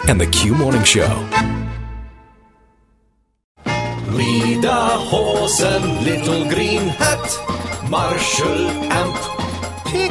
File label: Polka Monster Promos